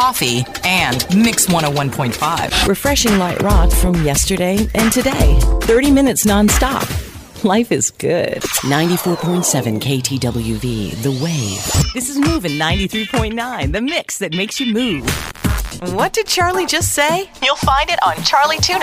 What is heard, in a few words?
radio imaging